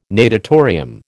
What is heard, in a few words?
"natatorium", American